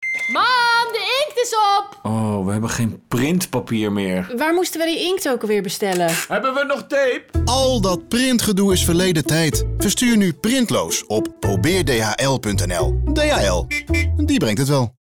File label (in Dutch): Radiospot